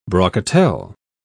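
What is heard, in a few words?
"brocatelle", British English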